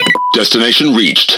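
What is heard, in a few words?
Speech Autopilot Destination, British English; World Of Warships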